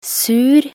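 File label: "sur", Norwegian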